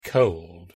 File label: "cold", en